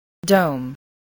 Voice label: "dome", spelling

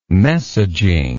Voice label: "messaging", English

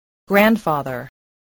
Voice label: "grandfather", spelling

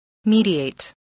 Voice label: "mediate", spelling voice

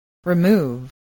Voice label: "remove", spelling voice

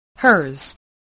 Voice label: "hers", English, spelling

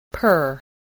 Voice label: "per", English, voice

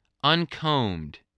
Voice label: "uncombed", English spelling voice

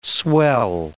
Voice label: "swell", English word

